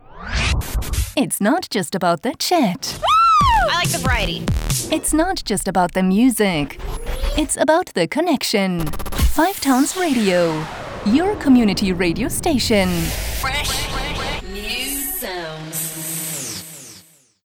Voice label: radio drop